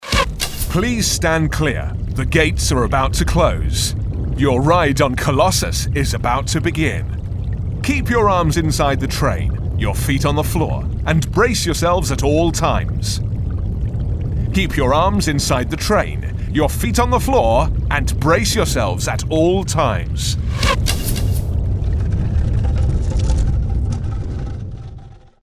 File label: Start Anouncment; Colossus